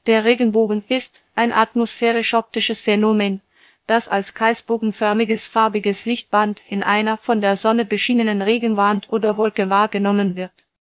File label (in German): speaker, de DE; piper-voices